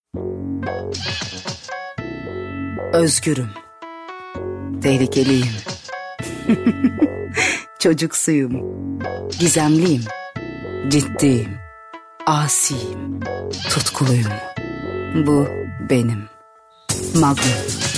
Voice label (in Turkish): REKLAM